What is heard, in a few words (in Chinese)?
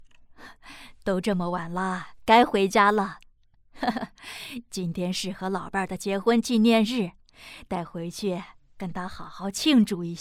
角色老年女